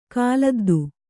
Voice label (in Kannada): "ಕಾಲದ್ದು", Kannada